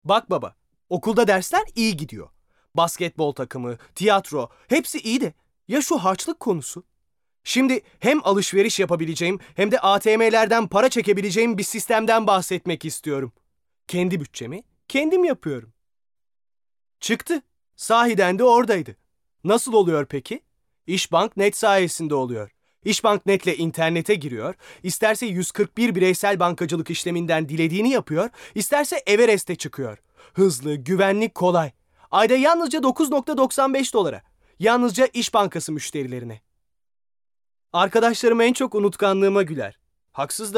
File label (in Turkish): GENC ERKEK